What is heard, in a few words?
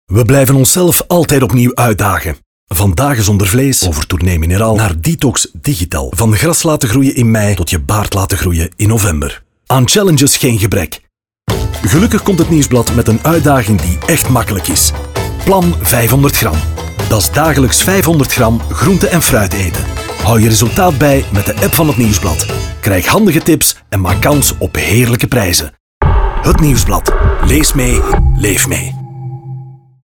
nl radio; 30s; Het Nieuwsblad